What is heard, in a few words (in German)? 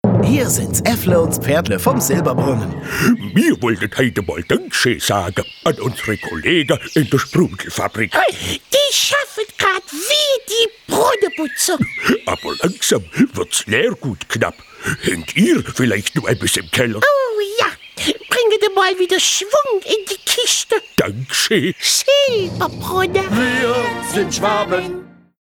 funkspot; silberbrunnen; leergutrueckgabe; dankschee